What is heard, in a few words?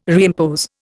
"reimpose", Indian